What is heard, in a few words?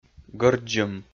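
"гӧрддзым", Komi, male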